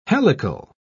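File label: "helical", British English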